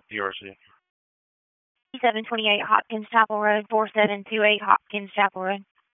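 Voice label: Sheriff's Office Dispatch 1, p25; wake p25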